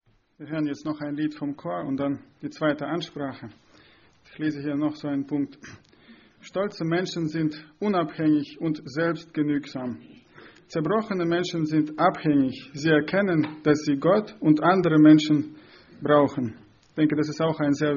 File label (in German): ansage; Братское (Германия, 04-25)